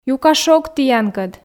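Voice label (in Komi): "юка шог тіянкӧд", Komi, female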